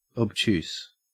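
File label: "obtuse", Australian English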